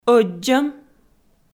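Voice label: "ӧддзӧм", Komi, female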